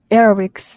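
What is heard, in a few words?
"aerobics", Japanese female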